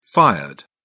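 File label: "fired", British English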